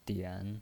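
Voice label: "dian" Mandarin Chinese, third tone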